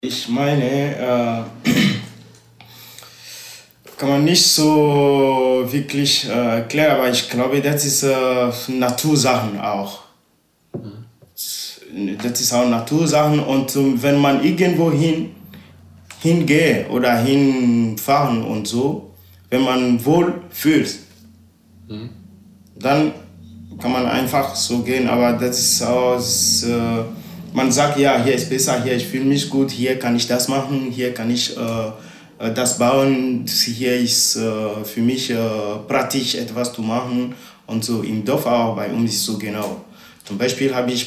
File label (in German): ewe togo